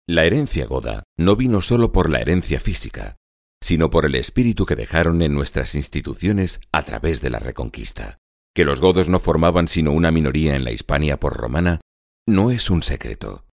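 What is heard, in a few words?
spanish male narration; voice demos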